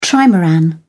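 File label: "trimaran", British English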